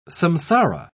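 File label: "samsara", British English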